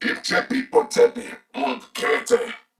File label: Patrol, Corpus Venus Male; Warframe; CorpusVenusMaleA